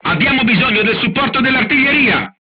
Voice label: voice message art command, crew tanks italian

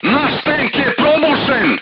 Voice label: voice message player tank hit, crew tanks serbian

